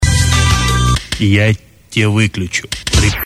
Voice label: russkoe reklama